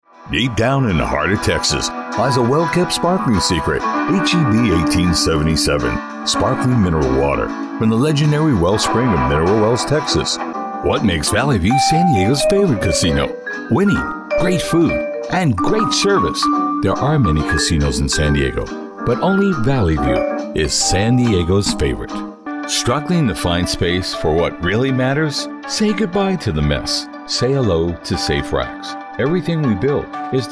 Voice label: Commercials